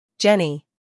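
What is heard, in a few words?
"scandal", American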